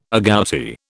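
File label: "agouti", British English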